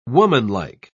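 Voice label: "womanlike", British English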